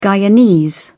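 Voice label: "guyanese", British English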